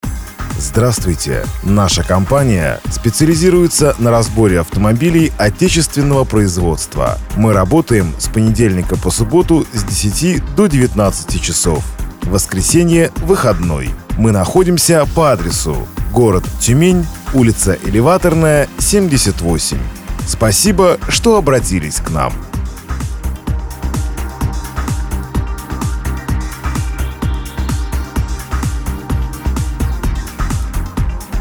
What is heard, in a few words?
IVR; AVTO